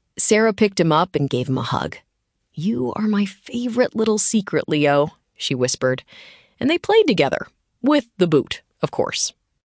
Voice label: narration; o gato no sapato; historia en A2